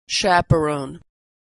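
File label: "chaperone", American English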